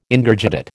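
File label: "Ingurgitate", American English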